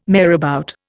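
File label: "marabout", Indian English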